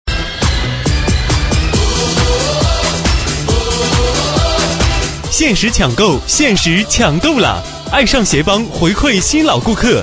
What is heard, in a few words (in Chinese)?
男 广告; 艾尚鞋邦